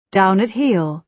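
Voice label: "down-at-heel", British English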